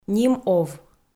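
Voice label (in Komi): "ним-ов" Komi, female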